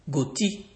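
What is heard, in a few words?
"goatee", Nigerian Pidgin, male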